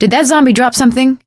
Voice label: fallen survivor, survivor voice; Left 4 Dead 2; Source Engine; video games; producer